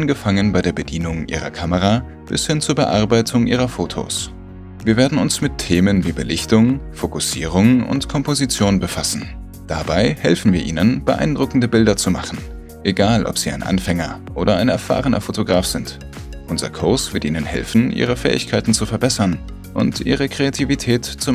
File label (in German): Voice Over Elearning Fotografie Musik